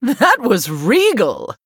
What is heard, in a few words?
Human Emote Wow; Prestor